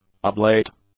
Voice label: "oblate", english; adjectives